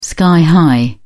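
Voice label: "sky high", British English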